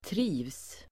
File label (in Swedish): "trivs", Swedish